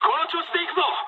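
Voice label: player killed enemy mood high, speech japanese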